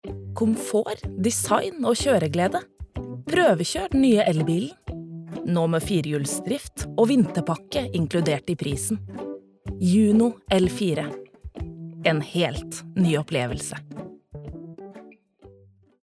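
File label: Norwegian advert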